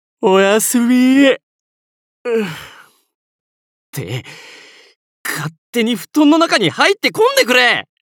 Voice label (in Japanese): おやすみコール, 岡山弁